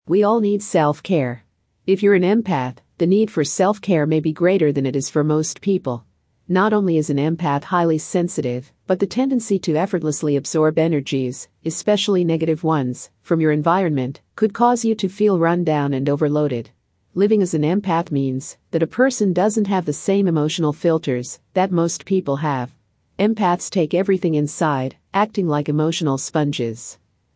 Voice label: Female Audio; Empath